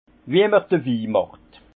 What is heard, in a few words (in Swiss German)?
"wimacht", Alsace; la vignification; vin